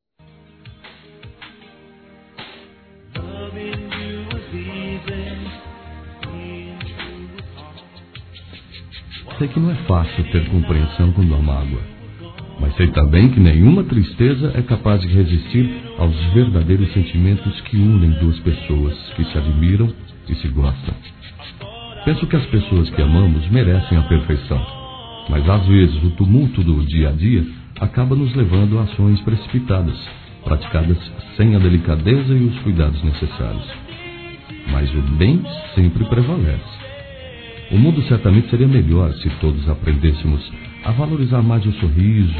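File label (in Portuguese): DESCULPAS ROMANT. masculino, Telemensagens; Telemensagens 2017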